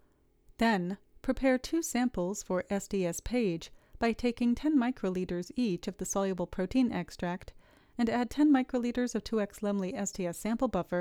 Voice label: Voiceover